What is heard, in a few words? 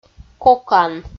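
"кокан", Komi, female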